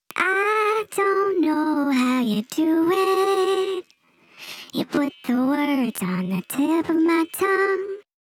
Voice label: vox, chipmunks